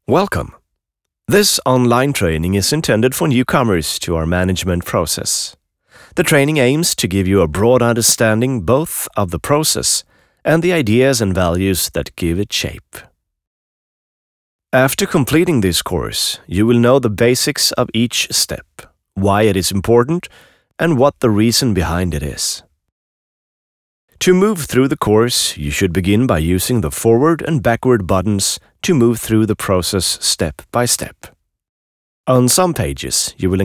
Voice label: english, male voice